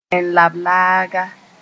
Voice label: "tẽn-lablaaga", Moore; lowland

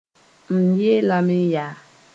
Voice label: "yaa", moore

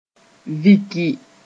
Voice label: "viki", moore

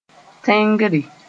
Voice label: "tẽngre", Moore

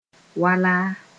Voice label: "wala", Mooré

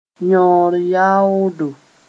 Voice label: "yõor-yaoodo", moore

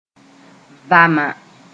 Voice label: "vama", moore

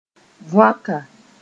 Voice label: "võaka", moore

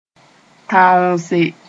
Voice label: "tãoose", moore; to gush out

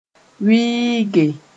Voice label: "wɩɩge", moore

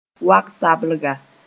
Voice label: "wag-sablga", Mooré; liana sp

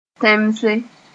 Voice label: "tẽmse", Moore

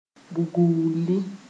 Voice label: "bugulli", moore

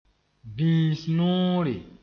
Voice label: "bĩis-noore", moore

